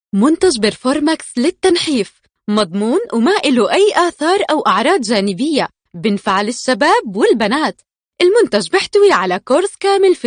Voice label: 阿拉伯语女 外语 小语种 自然

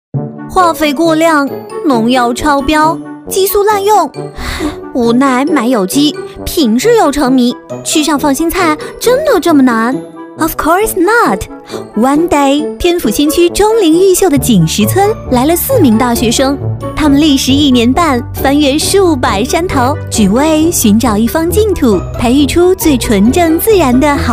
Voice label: 宣传片; 病毒; 来兮农场

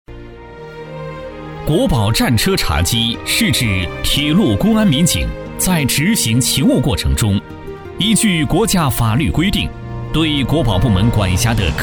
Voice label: 男国 专题 政府 公安 稳重; 济南公安

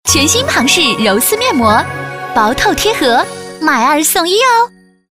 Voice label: 广告 化妆品 面膜 甜美

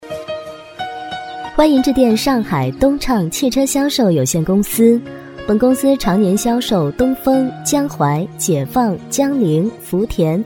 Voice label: 彩铃 汽车销售公司彩铃 温柔, 女

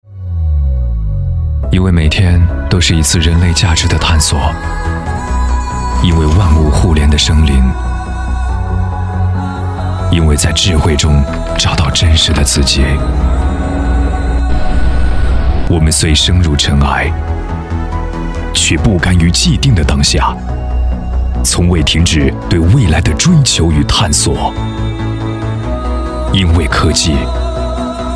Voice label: 男国 广告 品牌形象 稳重; 科技产品

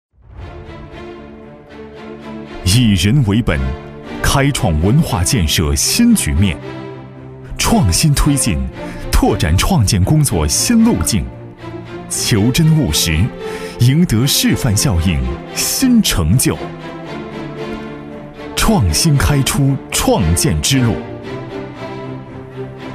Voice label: 男国 专题 政府 检察院电视专题片 大气

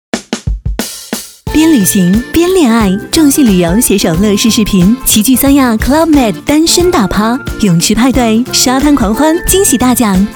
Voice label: 旅游 自然; 广告; 众信旅游

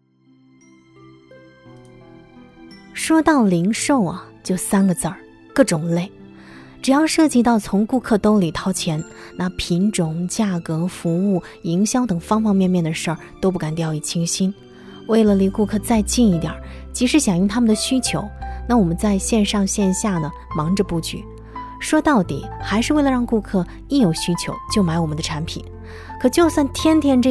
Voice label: 培训课件 自然口语 顾客, 女

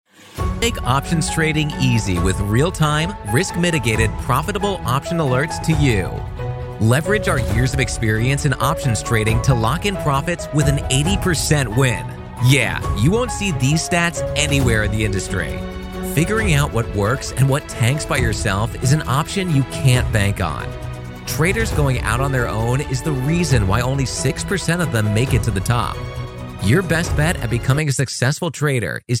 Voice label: 外籍男英 外语 美式英语 大气 大气

